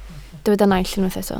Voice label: "naill", Welsh